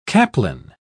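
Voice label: "caplin", English, British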